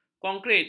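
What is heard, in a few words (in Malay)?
"konkrit", Malay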